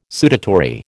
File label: "sudatory", American